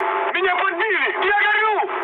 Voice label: on fire, mood med, speech ru; War Thunder